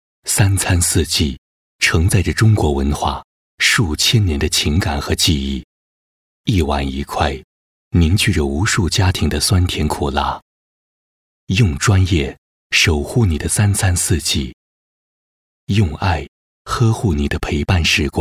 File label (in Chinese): 男 温情 干声; 美的